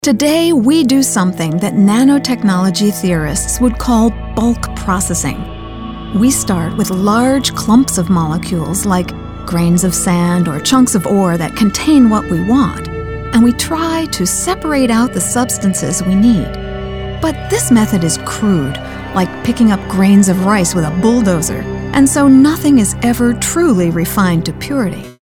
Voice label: 30s 40s Female Narration